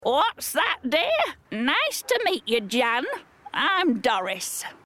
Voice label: granny

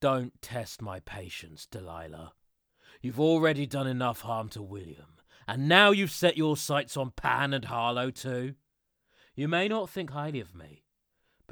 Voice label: Animation English Young Adult Male

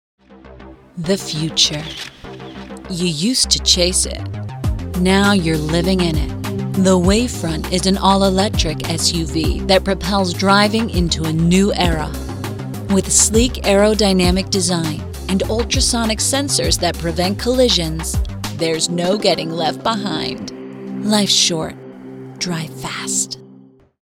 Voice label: Accent Track US; BigMouthVoices

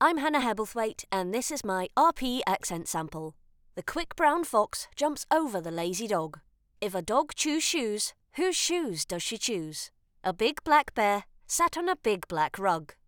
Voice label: UK RP Accent

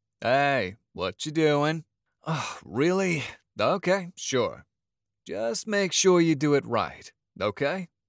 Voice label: standard US

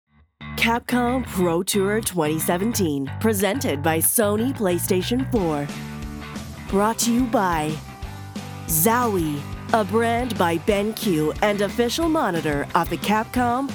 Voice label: US Accent Voice; en-AU

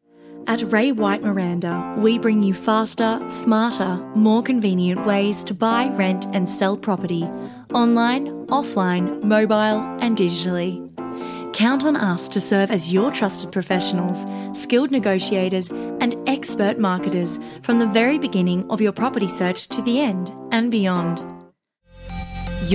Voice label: AUSTRALIAN VO'S; en-AU

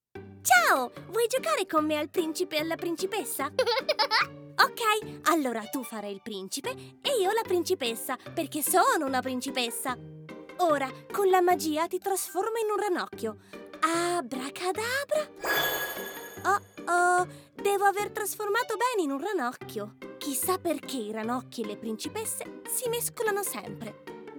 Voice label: italian animation

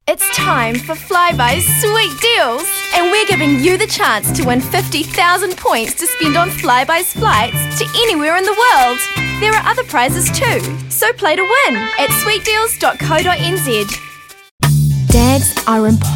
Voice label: Voice Actor; BigMouthVoices

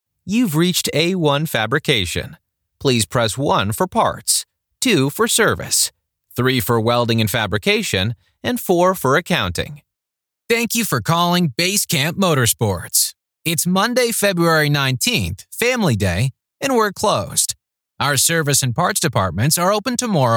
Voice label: IVR

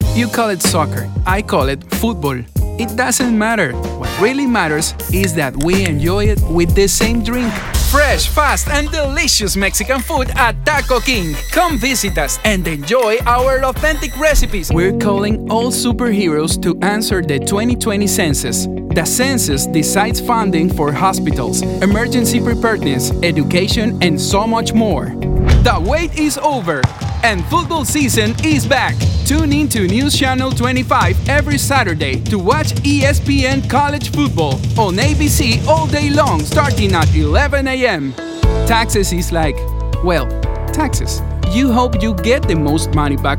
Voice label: ENG w Latino accent

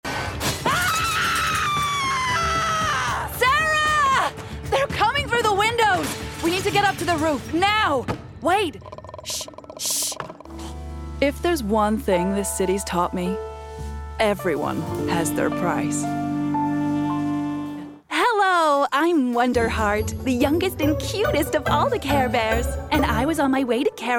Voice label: US Accent

